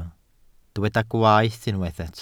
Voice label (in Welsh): "gwaeth", Welsh